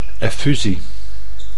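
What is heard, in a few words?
"effusie", Dutch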